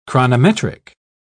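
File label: "chronometric", British English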